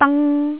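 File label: "dang1", Cantonese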